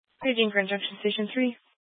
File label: Fire Dispatch